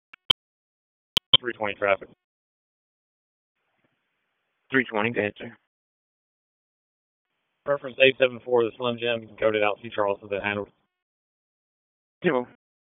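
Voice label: Police Northeast District Dispatch, p25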